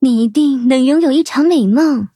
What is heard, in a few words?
levelup zh-hant; cookie run